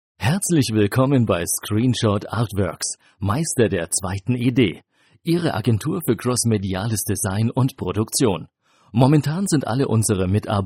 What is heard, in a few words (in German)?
Telefonansage; Artworx